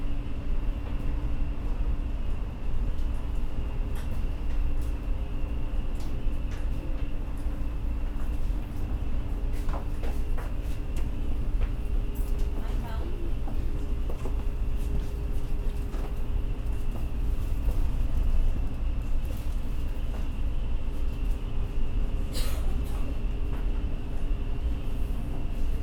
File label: Newspaper Spanish